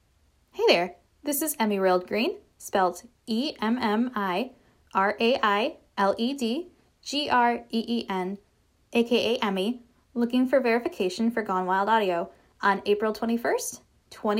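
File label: Female Verification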